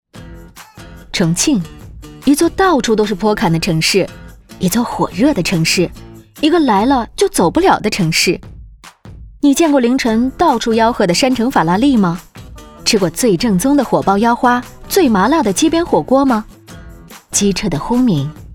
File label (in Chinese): 宣传片轻松时尚; 重庆够江湖